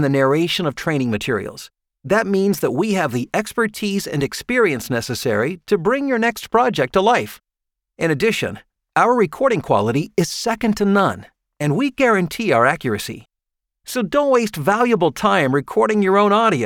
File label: male talent